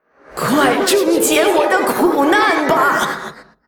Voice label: Banshee pissed zh; 女妖（魔兽争霸3）; 亡灵

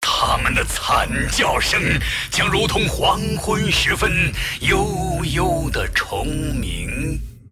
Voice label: move, zh

